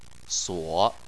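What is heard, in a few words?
"suo", Chinese, third tone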